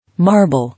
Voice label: "Marble", English